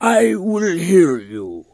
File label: Tuskarr Female Greeting; Magic User